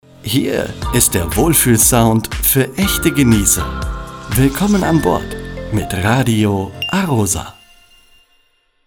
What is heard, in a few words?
Radio Station ID male; Radio A-ROSA